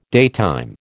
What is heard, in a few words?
"daytime", American